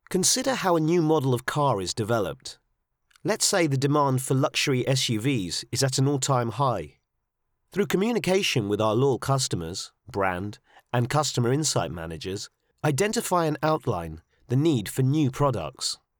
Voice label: e learning